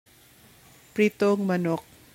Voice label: Cebuano